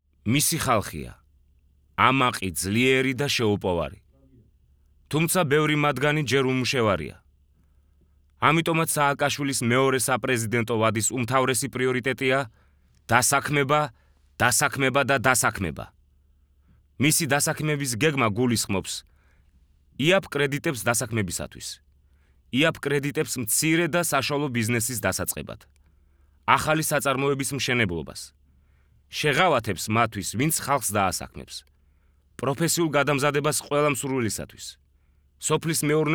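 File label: georgian male narration; voice demos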